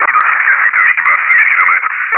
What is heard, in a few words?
Chatter